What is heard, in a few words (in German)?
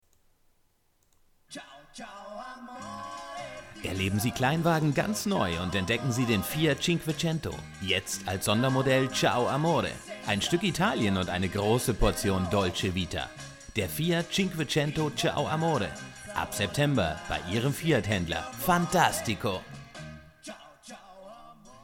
Fiat 500 Radiospot